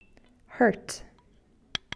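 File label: "hurt", English; adj; fichiers sons du vocabulaire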